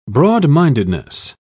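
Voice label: "broad-mindedness", British English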